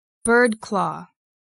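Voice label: "bird-claw", English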